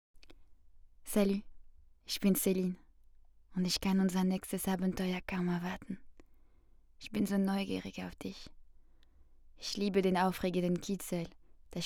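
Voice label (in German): sexy Stimme DE mit französischem Akzent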